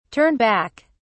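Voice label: "turn back", British English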